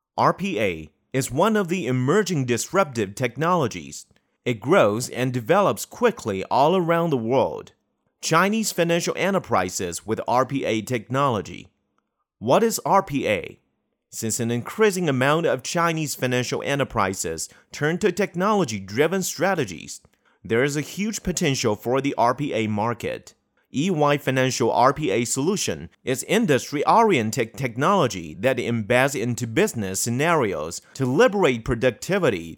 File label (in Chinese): 英男 解说; RPA科技